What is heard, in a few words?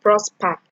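"prosper", Nigerian Pidgin, female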